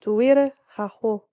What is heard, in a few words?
female attack, voice napatan civ